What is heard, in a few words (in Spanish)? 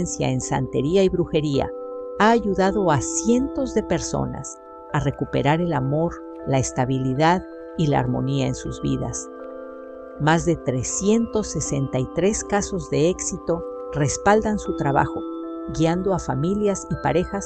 Voz maestra